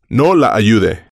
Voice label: no la ayude, Spanish

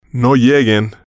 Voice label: no lleguen, Spanish

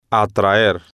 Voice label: "atraer" Spanish

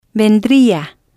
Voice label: vendría, Spanish

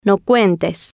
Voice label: no cuentes, Spanish

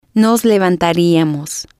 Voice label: nos levantaríamos, Spanish

